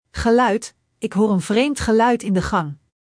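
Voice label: "geluid", Dutch; Spelling Gr4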